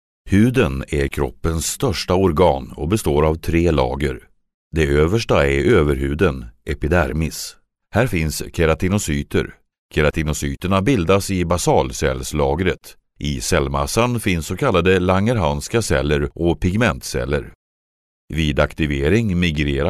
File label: swedish male medical